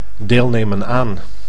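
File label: "deelnemen aan", Dutch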